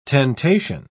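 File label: "tentation", British English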